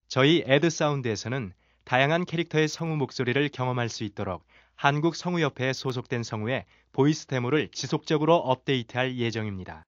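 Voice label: Korean male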